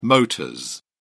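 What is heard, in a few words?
"motors", British English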